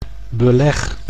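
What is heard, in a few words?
"beleg", Dutch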